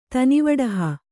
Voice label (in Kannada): "ತನಿವಡಹ", Kannada